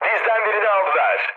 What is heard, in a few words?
aircraft destroyed, mood med, speech tur